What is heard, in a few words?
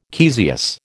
"caesious", American